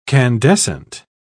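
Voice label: "candescent", British English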